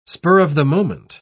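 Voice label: "spur-of-the-moment", English, British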